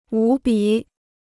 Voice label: "无比", Chinese

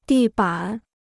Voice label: "地板", Chinese

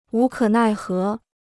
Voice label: "无可奈何", Chinese

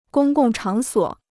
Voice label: "公共场所", Chinese

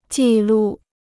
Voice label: "记录", Chinese

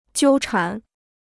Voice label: "纠缠", Chinese

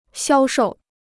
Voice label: "销售", Chinese

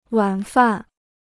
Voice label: "晚饭", Chinese

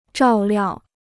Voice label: "照料", Chinese

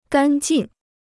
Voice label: "干劲", Chinese

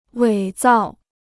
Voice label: "伪造", Chinese

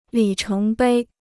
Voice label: "里程碑", Chinese

